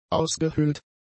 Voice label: "ausgehöhlt", German; adjectives